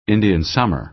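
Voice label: "indian summer", American English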